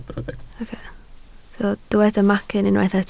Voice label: "macyn", Welsh